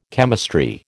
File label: "chemistry", American